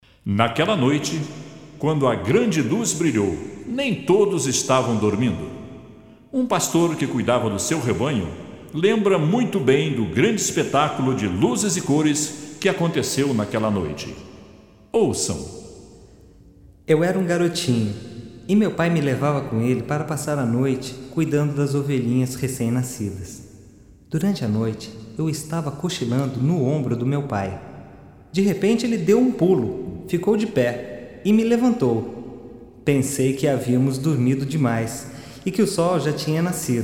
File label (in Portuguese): Narração